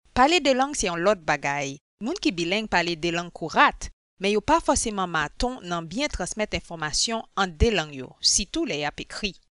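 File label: Haitian Creole French, female, voice over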